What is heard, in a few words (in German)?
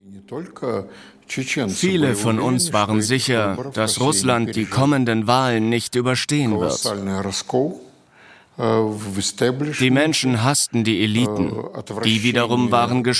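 VoiceOver, Sprecher, Männer; Pulverfass Kaukasus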